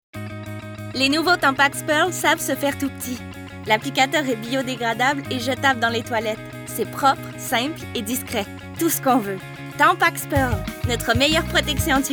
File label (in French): annonce; Tampax